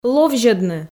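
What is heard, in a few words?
"ловзьӧдны", Komi, female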